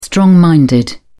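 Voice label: "strong minded", British English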